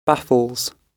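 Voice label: "baffles", British English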